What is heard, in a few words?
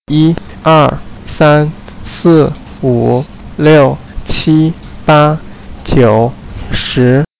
chinese; numbers